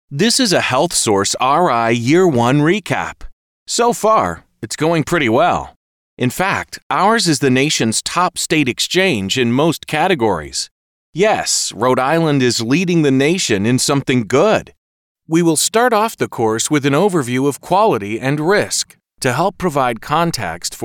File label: eLearning, voiceover; Blue Wave Voiceover